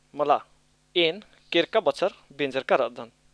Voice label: Kurux; mala. een kerka bachar benjrka ra'dan; No. I got married last year.